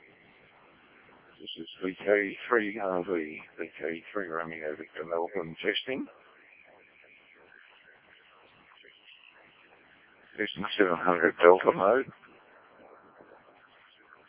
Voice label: decoded speech, parrot